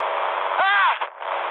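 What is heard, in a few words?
German damaged pilot, speech; War Thunder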